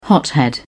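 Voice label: "hothead", British English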